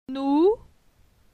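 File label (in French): "Nou", French; phonetique; la syllabe ou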